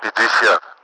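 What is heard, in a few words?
Russian speech, Mi-8MT; Messages fifty; DCS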